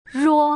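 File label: "rou", Putonghua; ruo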